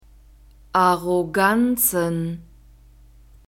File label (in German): "Arroganzen", German